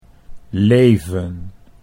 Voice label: "leven", Dutch

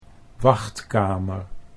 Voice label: "wachtkamer", Dutch

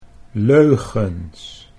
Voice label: "leugens", Dutch

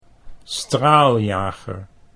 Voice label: "straaljager", Dutch

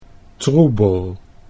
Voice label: "troebel", Dutch; 'murky, opaque'

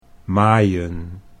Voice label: "maaien", Dutch

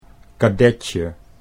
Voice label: "kadetje", Dutch

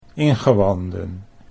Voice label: "ingewanden", Dutch

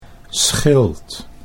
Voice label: "schild", Dutch

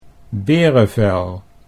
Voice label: "berevel", Dutch

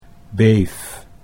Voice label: "beef", Dutch

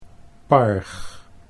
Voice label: "parg", Dutch

